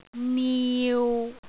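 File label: "niu6", Cantonese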